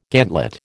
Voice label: "gantlet", American